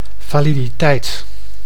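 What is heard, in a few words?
"validiteit", Dutch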